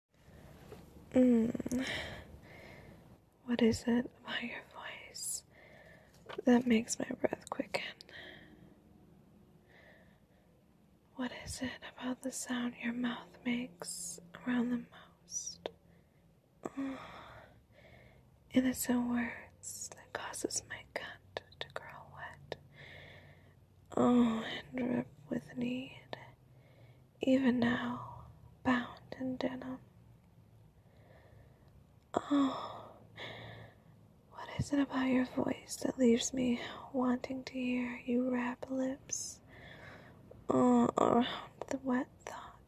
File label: breathy whispers, breathy moans; your voice makes me weak; F4A